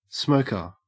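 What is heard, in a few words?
"smoker", Australian English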